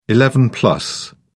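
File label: "eleven-plus", British English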